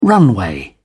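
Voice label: "runway", British English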